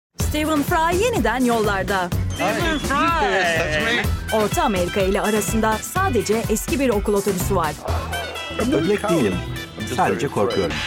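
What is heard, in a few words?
Turkish, General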